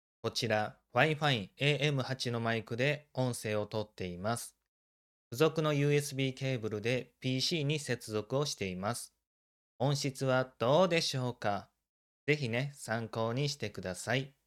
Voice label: fifine am8w